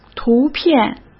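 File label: "图片" Mandarin Chinese; hsk; chinese-flashcards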